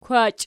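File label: "kwachi", nominative, Archi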